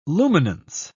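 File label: "luminance", British English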